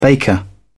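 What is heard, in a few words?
"baker", British English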